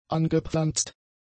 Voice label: "angepflanzt", German; adjectives

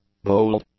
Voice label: "bold", English; adjectives

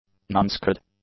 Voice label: "nonskid", english; adjectives

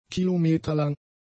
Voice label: "kilometerlang", German; adjectives

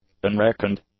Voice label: "unreckoned", english; adjectives

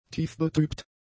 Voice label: "tiefbetrübt", German; adjectives

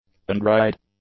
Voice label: "undried", English; adjectives

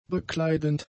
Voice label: "bekleidend", German; adjectives

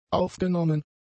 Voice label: "aufgenommen", German; adjectives

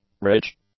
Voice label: "rich", English; adjectives

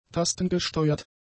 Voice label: "tastengesteuert", German; adjectives

